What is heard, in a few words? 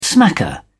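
"smacker", English, British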